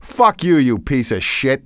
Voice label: cuss, male; kingpin; profanity